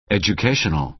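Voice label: "educational", American English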